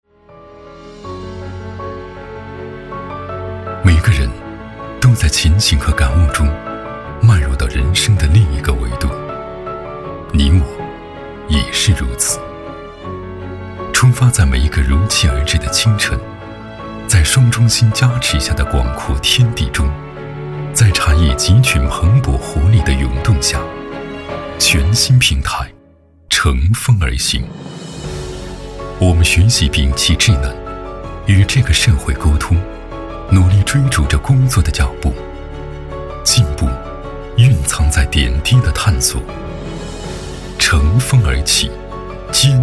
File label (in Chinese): 男 广告 独白 片 深沉故事; 我们-在路上